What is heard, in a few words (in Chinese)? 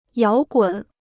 "摇滚", Chinese